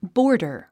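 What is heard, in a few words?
"boarder", English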